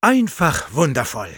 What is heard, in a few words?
Sniper cheers, de; "Einfach wundervoll!"